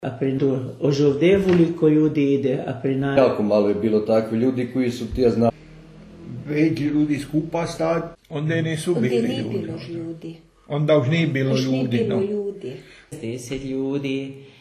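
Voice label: Long and rising u: ljúdi (genitive plural) 'people', dialect of Petrovo Selo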